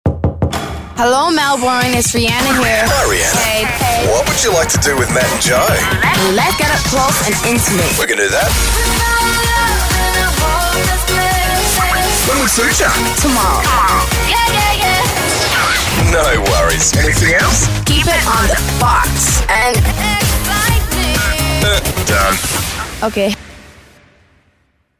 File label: Promo